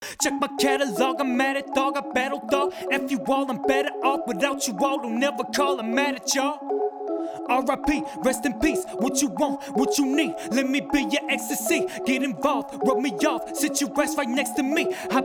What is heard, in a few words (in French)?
vocals avec limiteur; vcl515